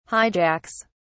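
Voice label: "hijacks", British English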